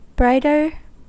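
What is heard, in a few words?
"brighter", US English; pronunciations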